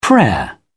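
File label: "prayer", English, British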